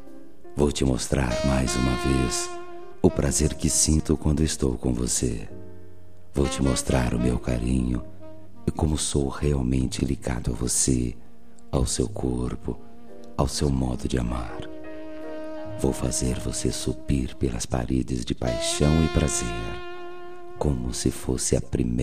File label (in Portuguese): Namorados pra Namoro Erótico M